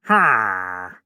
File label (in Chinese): 流浪商人否定声